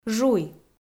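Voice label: "жуй", Komi, female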